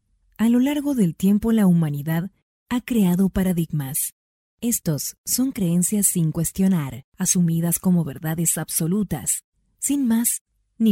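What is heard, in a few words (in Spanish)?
Voice Talent, español neutro latino americano mujer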